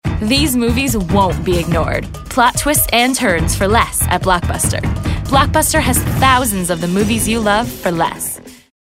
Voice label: perky